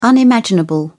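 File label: "unimaginable", British English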